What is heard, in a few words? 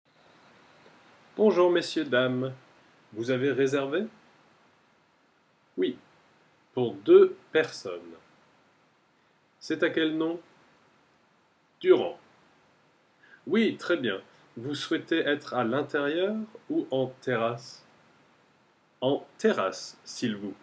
dialogue brasserie, French; terrasse dune brasserie